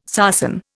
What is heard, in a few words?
"sarsen", Indian